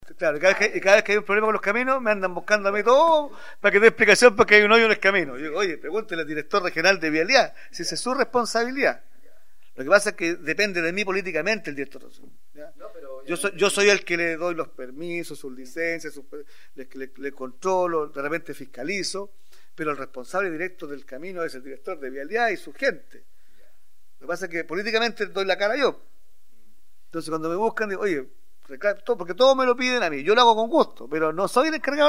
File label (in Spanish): Cuña